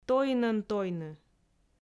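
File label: "тоинӧн тойны", Komi, female; audio dict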